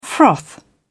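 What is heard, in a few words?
"froth", British English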